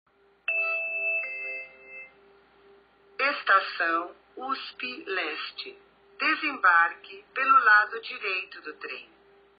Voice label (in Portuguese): narracao estacao; usp leste